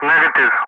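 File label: "negative", English; Ka-50; Wingman; Speech; DCS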